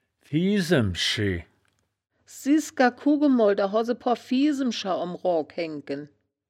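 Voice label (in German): "fiesemschi", wittlicher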